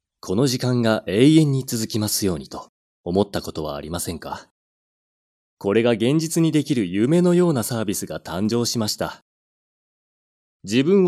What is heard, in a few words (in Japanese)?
落ち着いたCMナレーション